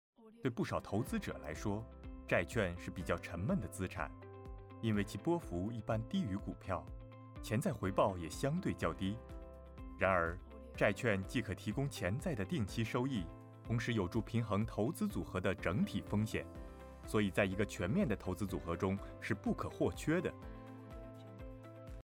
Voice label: 普通話男聲; HSBC

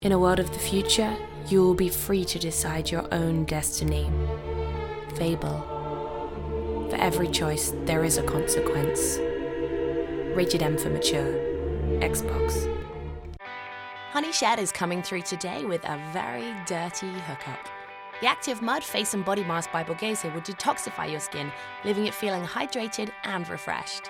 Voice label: 英語女聲

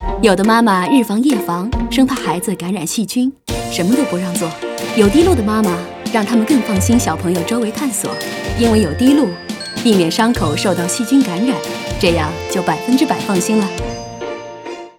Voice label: 國語女聲 廣告; Dettol

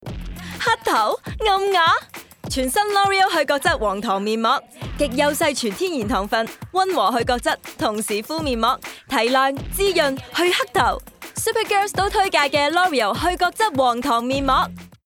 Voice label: 粵語女聲; Loreal

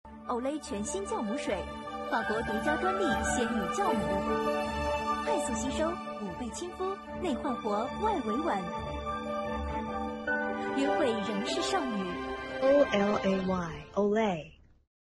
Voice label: 國語女聲; Olay

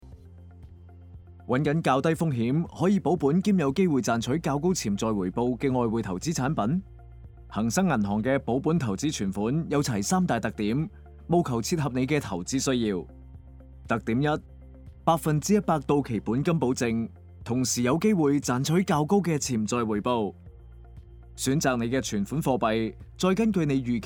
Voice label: 粵語男聲; Hang Seng

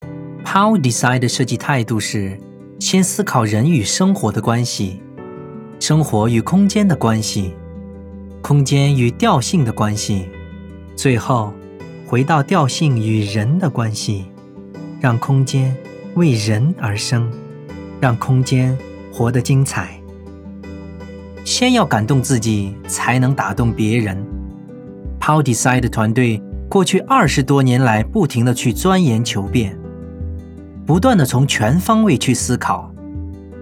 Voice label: 普通話男聲; PalDesign

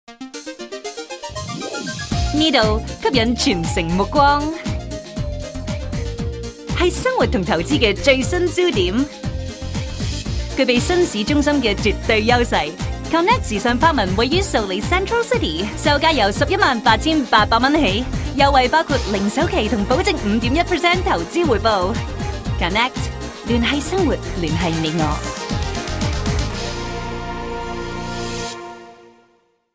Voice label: 粵語地產女聲

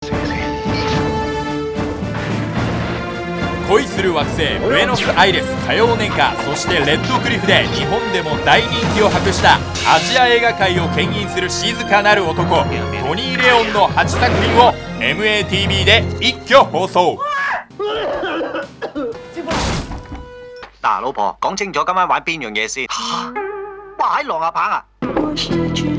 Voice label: 日語男聲 CLEAR trailer VO

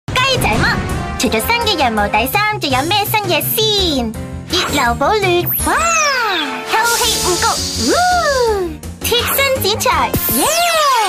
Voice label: 粵語女聲 卡通聲; 雞仔嘜

